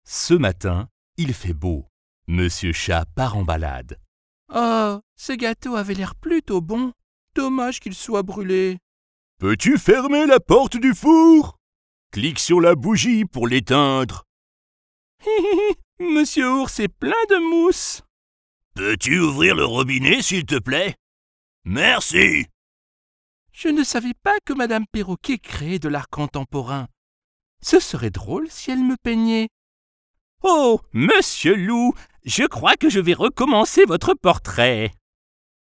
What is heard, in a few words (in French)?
Voix applis enfants; Rue Mysteres